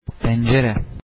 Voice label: window, turkish

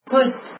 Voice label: hat, afrikaans

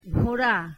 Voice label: bengali horse

